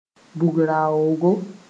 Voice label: "bug-raoogo", moore; gun firearm

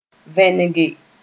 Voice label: "vẽnege", moore

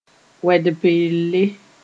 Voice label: "wed-pelle", moore

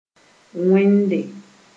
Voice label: "wẽnde", Moore

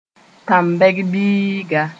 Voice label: "tãmbɛg-biiga", moore

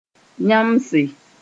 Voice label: "yãmbse", moore

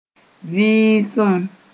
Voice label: "vɩɩsem", moore

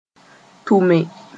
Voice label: "tʋme", moore; send somebody to sb.

